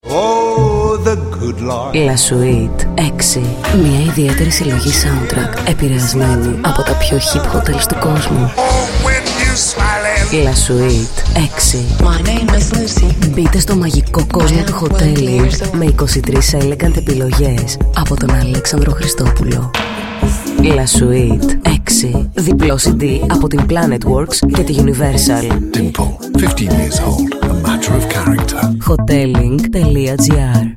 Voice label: Radio Spot